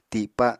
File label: "tipa", apatani